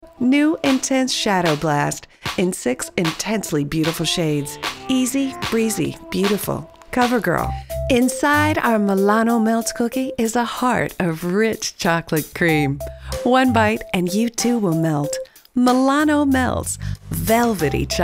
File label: COMMERCIAL